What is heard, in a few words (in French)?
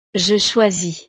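"je choisis", French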